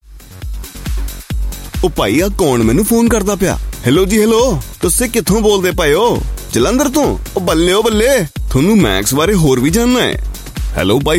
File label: Punjabi Commercial Explanatory; India; Male